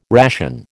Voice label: "ration", American

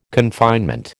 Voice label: "confinement", American

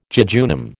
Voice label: "jejunum", American

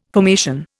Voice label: "formation", Indian

